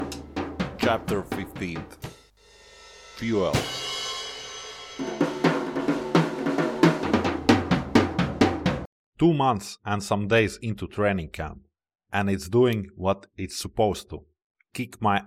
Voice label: Audiobook; me no see but me hear